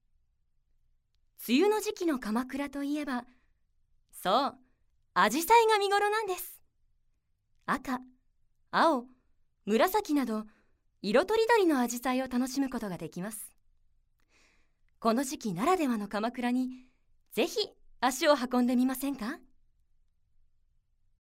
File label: narration